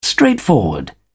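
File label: "straightforward", British English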